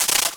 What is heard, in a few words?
Zombie